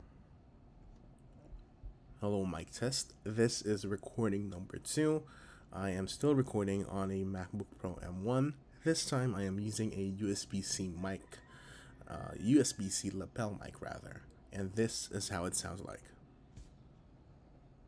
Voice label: Voice With lapel mic